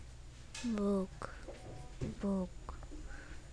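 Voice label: "book", spoken word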